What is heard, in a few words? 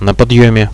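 on the ascent, Russian, radio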